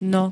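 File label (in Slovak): "no", Slovenské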